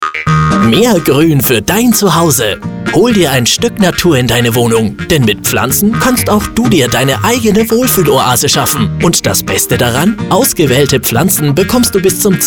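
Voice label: comercial, German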